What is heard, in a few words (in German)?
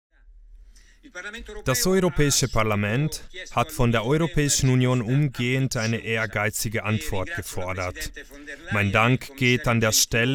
nachverdolmetschung it de, voice over